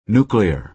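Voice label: "nuclear", American English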